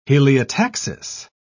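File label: "heliotaxis", British English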